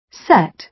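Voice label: "set", British English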